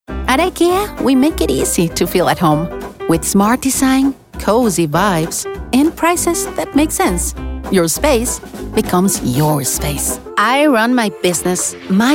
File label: Commercial; vo Commercial Demo